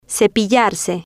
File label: "cepillarse", Spanish